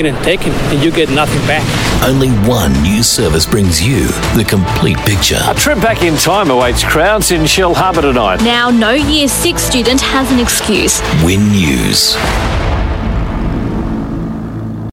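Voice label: AU English, Australian English; Sky News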